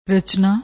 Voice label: "rachna", Punjabi